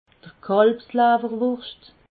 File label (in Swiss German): "kalbslawwerwurscht", alsace